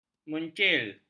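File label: "mencil", Malay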